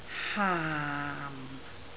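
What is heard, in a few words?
"haam4", Cantonese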